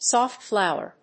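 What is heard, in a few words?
"Soft flour", English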